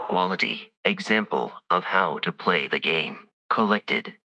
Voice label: happy, speech; fertig classic games